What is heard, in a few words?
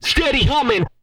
humin, Vocal, R&B Dance, hip-hop; TB HIP-HOP